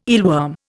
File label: "eelworm", Indian